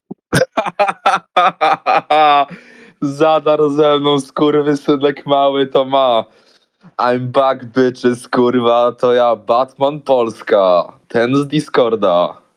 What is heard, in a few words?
voice message